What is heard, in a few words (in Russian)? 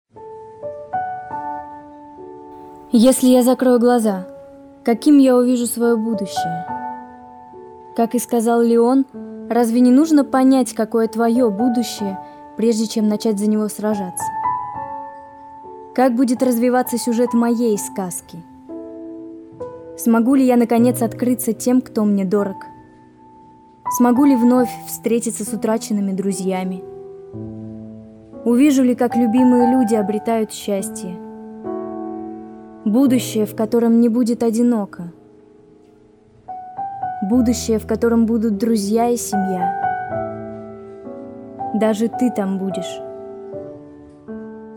Монолог с музыкой; Мистер Робот; Монолог Из Мистер Робот